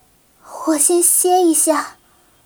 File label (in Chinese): 死亡, BattleCry; 薇薇安